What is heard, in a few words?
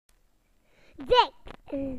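"thick", British English; PictureDictionary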